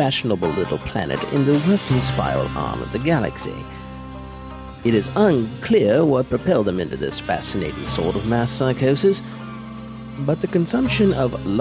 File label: Radio Ad